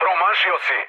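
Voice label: Serbian bomb failure mood low, speech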